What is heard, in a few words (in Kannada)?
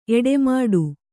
"ಎಡೆಮಾಡು", Kannada